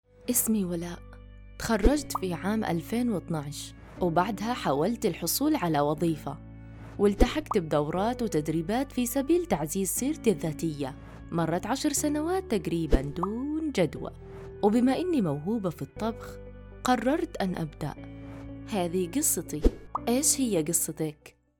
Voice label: saudi

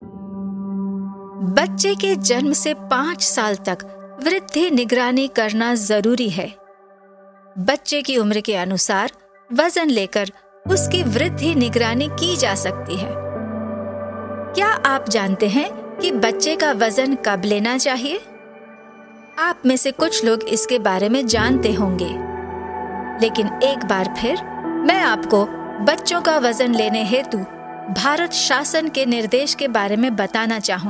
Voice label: hindi; aanganwaadi

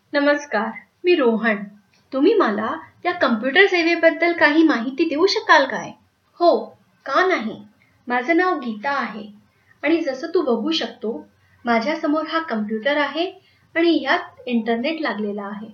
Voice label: elearn marathi

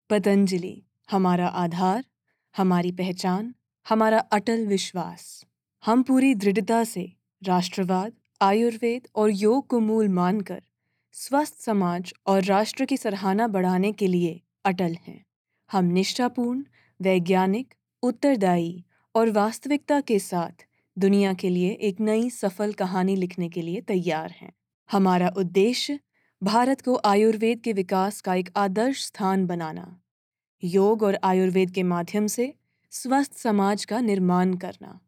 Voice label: corporate hindi

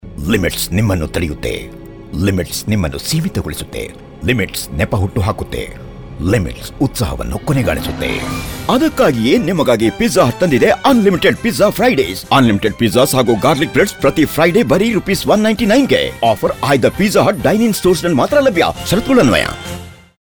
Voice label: promo Kannada

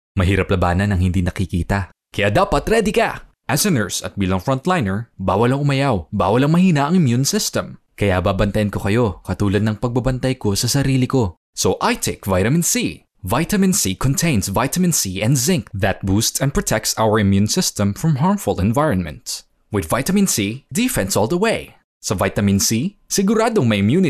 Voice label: male filipino

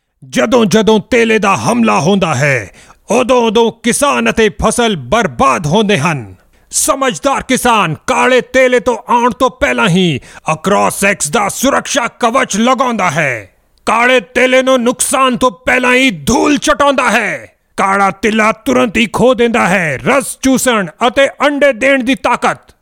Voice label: TVC punjabi

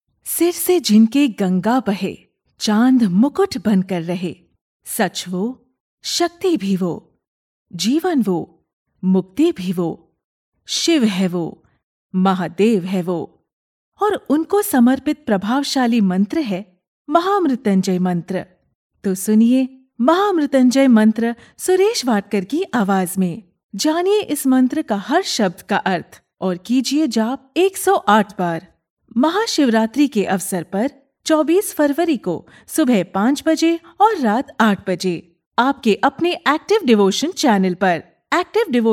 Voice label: Devtional hindi, voice artistes

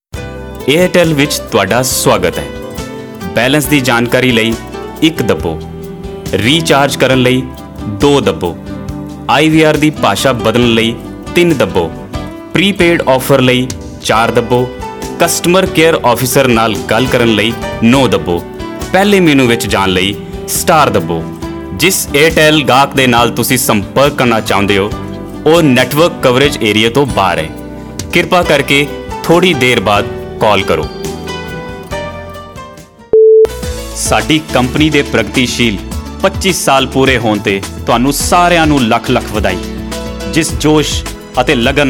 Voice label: punjabi

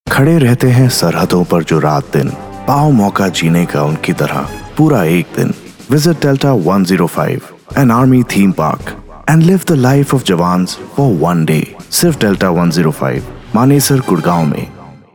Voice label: hindi

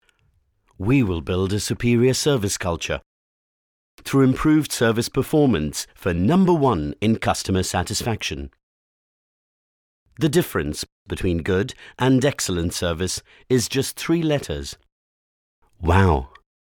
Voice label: narration uk; Perfect, clear voice texture

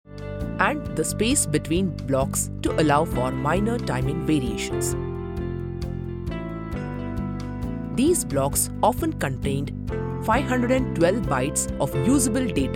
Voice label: elearning, english

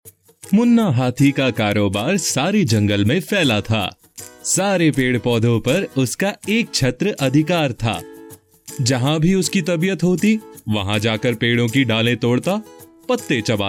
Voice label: Story Hindi

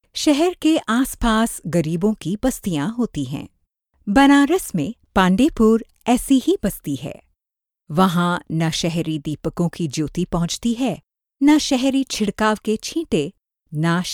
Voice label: HIN Audiobook; Young Adult female

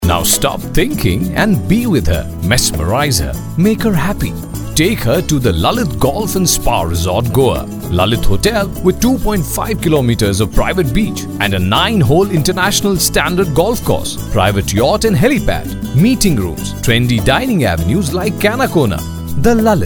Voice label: promo eng

